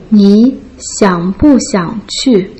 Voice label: "ni xiang bu xiang qu", Putonghua